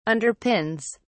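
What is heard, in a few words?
"underpins", English, British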